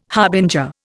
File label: "harbinger", Indian